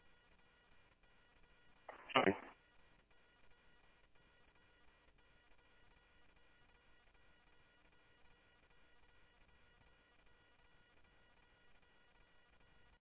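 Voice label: Mesa Police Department simulcast, scanner audio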